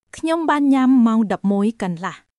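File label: Khmer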